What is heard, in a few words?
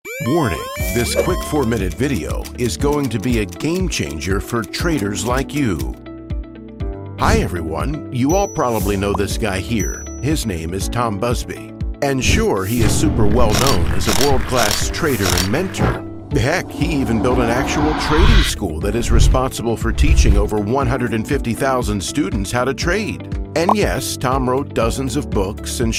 fast whiteboard narration